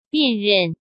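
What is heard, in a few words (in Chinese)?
"辨认", Mandarin Chinese; chinese flashcards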